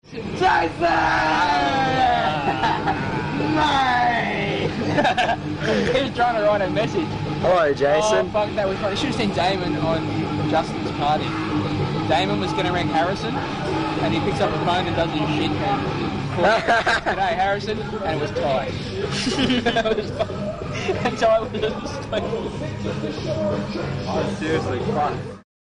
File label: drunken telephoning